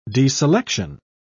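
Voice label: "deselection", British English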